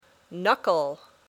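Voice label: "knuckle", English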